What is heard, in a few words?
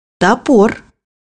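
"axe", Russian; bukvar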